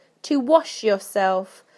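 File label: "to wash yourself", British English; PictureDictionary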